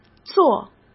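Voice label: "座", Mandarin Chinese; hsk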